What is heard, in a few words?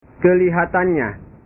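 "kelihatannya", Indonesian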